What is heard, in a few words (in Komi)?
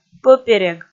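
"пӧперег", Komi, female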